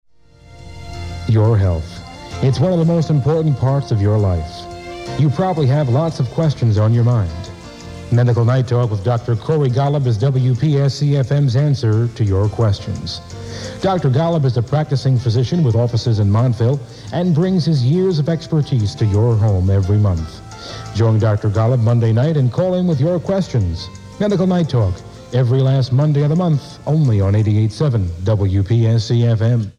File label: PROMO; Medical Night Talk